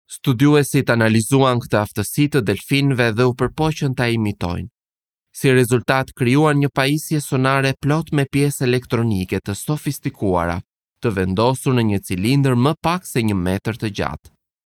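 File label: Albanian, male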